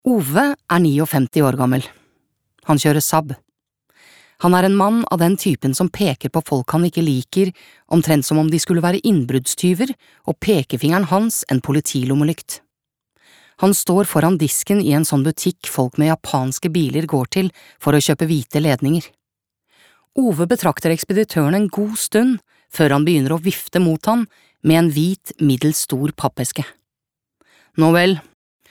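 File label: Norwegian audiobook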